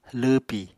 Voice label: "lwwpi", Apatani